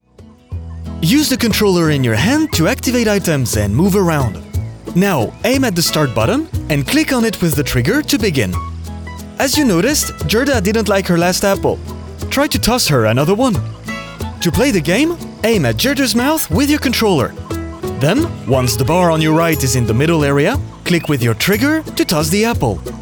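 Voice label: Character Natural, English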